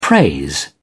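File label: "praise", English, British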